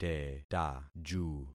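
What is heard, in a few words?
"tetaju", concatenated syllables; Affixing experiment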